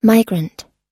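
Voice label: "tonight", US English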